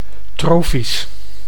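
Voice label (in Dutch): "trofisch", Dutch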